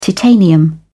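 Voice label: "titanium", British English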